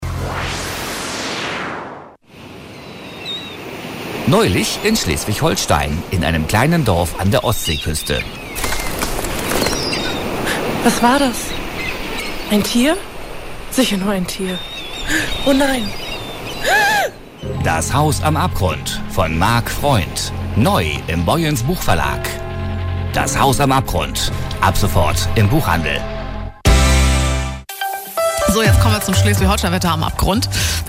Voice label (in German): werbespot; haus am abgrund; rsh